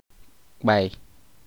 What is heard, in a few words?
sound made when a person falls flat on his face or, ibibio